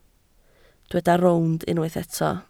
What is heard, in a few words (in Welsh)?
"rownd", Welsh